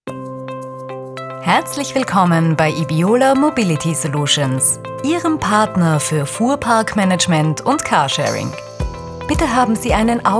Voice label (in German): Telefonschleife Begrüssung; Ibiola Mobility Solution